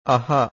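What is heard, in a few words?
"aha", Pali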